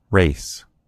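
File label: "race", American English; gcompris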